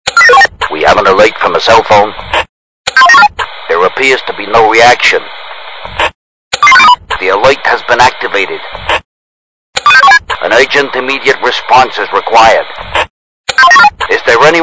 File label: Police Call